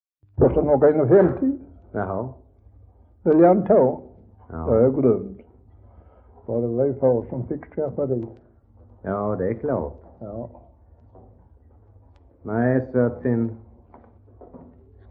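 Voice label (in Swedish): Västra Ingelstad Skåne, dialekter; Folkminnen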